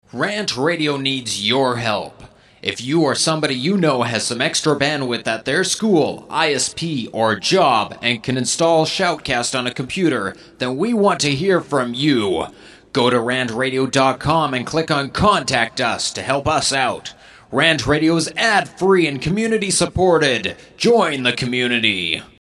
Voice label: Promo talk psa; RantRadio; Station Promos-In House

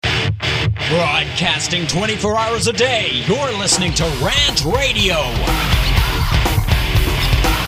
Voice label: Promo radio id broadcasting 24 hrs; RantRadio; Station Promos-In House

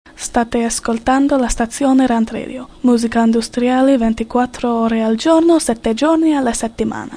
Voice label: Promo, Language Italian; RantRadio; RantMedia; Station Promos-Listener Submitted

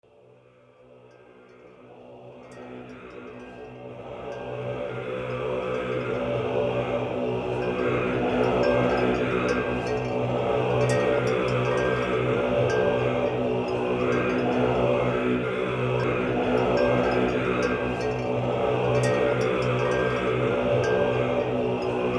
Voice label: Promo Monks; RantRadio; Station Promos-In House

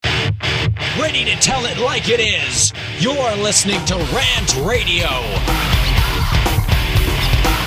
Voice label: Promo radio id; tell it like it is; RantRadio; Station Promos-In House

